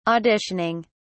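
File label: "auditioning", British English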